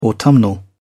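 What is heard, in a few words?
"autumnal", English, British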